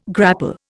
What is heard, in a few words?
"grapple", Indian